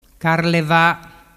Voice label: "carleva", dialettu

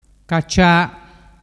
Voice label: "cacia", dialettu

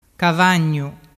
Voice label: "cavagnu", dialettu

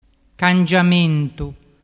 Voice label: "cangiamentu", dialettu

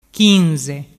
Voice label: "chinze", dialettu

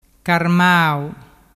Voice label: "carmau", dialettu

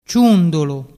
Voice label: "ciundulu", dialettu

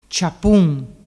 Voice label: "ciapun", dialettu

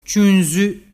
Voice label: "ciunzu", dialettu

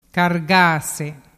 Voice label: "cargase", dialettu

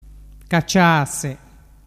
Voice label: "caciase", dialettu

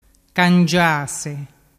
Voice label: "cangiase", dialettu

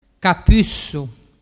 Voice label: "capussu", dialettu

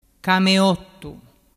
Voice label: "cameottu", dialettu

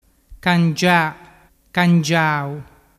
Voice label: "cangia cangiau", dialettu

